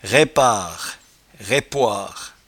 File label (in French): "raipare", patois jura